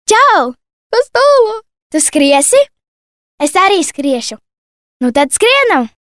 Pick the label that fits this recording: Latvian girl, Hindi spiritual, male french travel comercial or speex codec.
Latvian girl